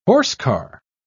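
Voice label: "horsecar", British English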